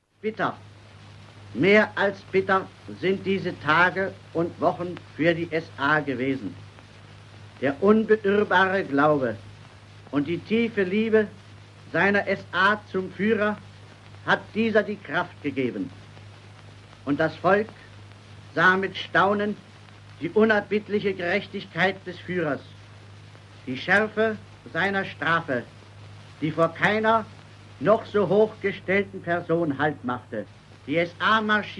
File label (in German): kommentar; zum roehm putsch; 1934